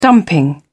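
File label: "dumping", British English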